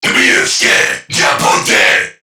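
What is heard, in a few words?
Player Spotted Crewmen, English; Warframe; CorpusCrewmen; CrewmanA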